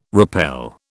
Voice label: "repel", British